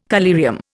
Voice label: "collyrium", Indian